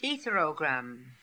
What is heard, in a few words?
"etherogram", pronounciations; Fourth Way; Beelzebub